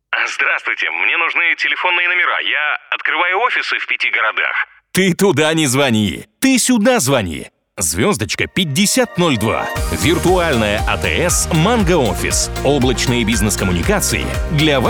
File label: russian m commercial